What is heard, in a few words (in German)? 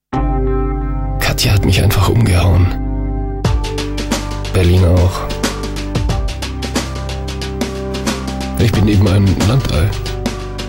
werbung